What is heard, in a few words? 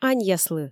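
"аньяслы" Komi, female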